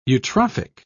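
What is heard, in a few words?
"eutrophic", British English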